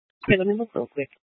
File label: Police Dispatch; Police 1 Dispatch